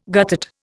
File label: "guttate", Indian